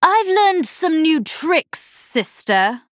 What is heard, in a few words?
Female Night Elf